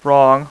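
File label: "wrong", male; voice; Web Clip Empire 150,000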